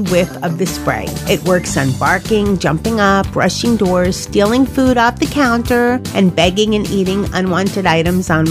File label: COMMERCIAL Stop Quiet Off